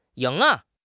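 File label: "ying2", Chinese; 汉语口语新时代-初级下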